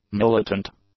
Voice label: "militant", english; adjectives